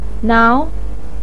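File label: "niao", Mandarin Chinese second tone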